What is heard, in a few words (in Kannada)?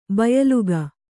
"ಬಾಯಿಲೆಕ್ಕ", Kannada